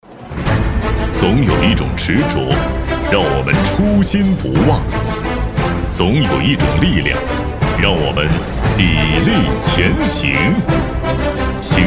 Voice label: 专题党政企业有力大气的

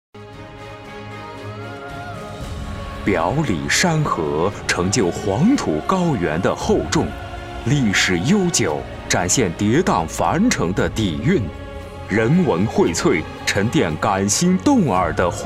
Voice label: 宣传 城市; 山西阳泉

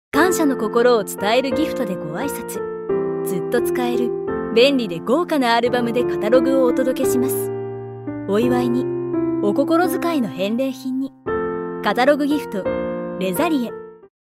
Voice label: 日语 女声

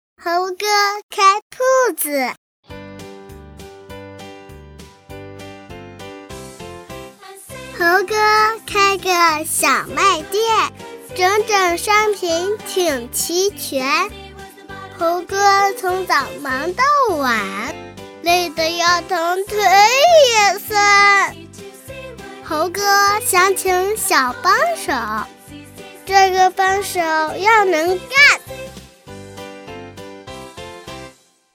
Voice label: 儿童 5岁侄女

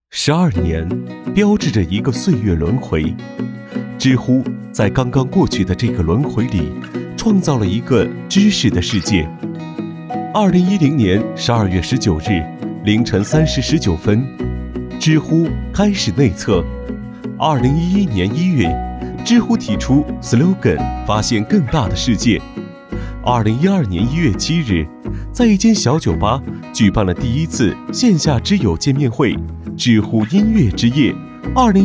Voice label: 宣传片; 知乎十二周年

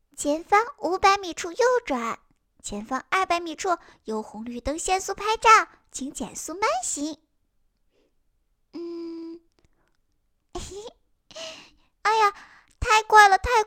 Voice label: 小孩 的 导航

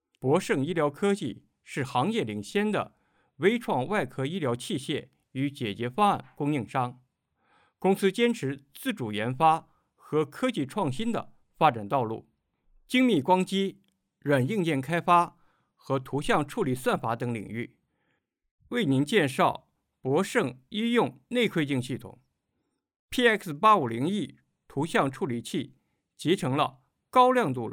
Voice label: 产品介绍

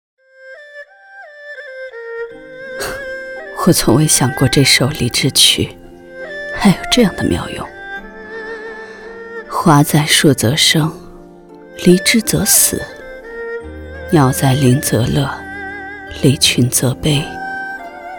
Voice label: 古风角色; 古风角色林夕梦

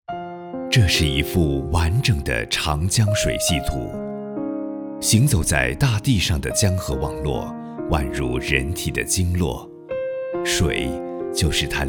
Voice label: 纪录片舒缓; 再说长江

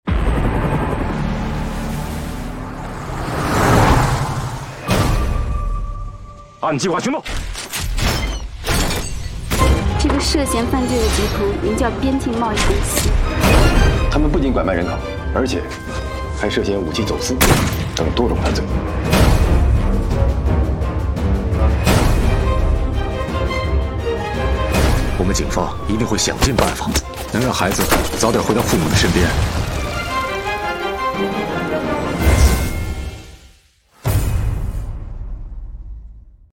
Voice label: 预告; 天下无拐

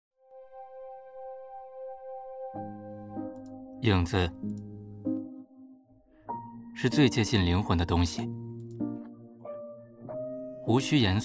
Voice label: 走心 广告

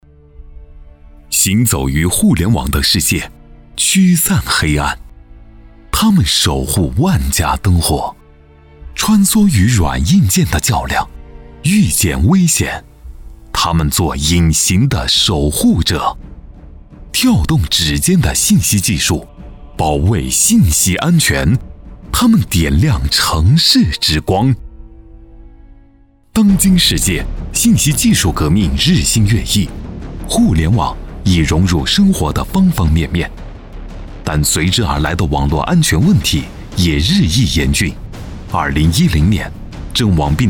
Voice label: 企业宣传大气品质

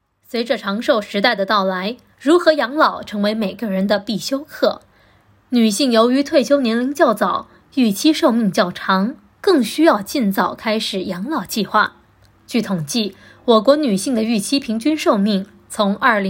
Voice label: 动画配音

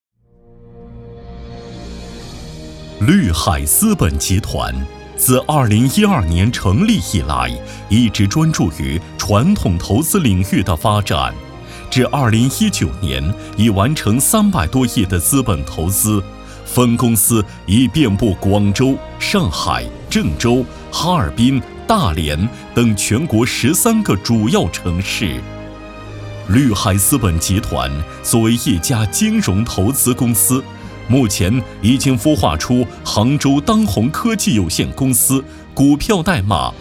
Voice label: 企业专题; 绿海集团

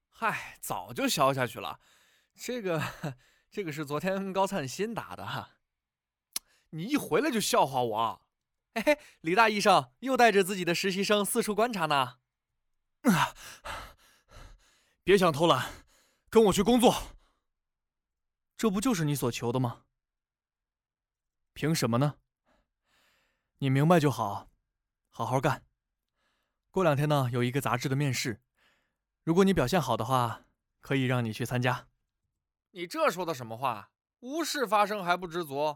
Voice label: 青年音

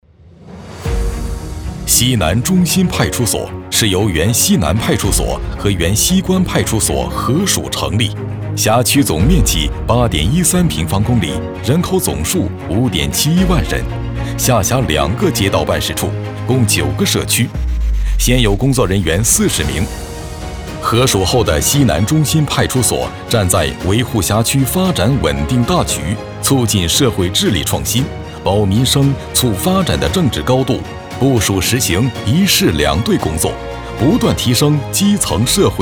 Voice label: 专题片 汇报类 自信 大气 坚定

